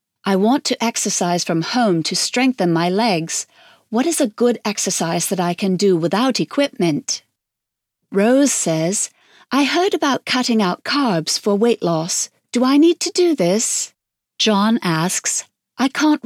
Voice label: female, English British